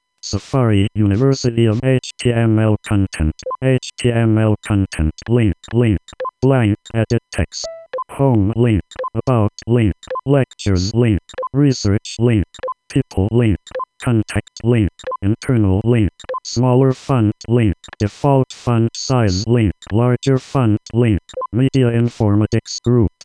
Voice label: screenreader intro